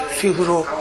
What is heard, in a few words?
"sefuro", minamiyamagata